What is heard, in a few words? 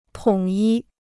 "统一", Chinese